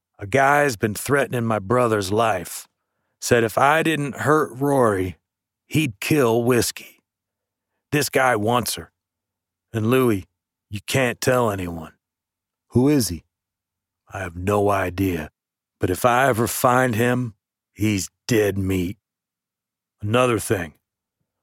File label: CHARACTER VOICE Southern Bad Guy; audiobooks